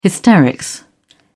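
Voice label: "hysterics", British English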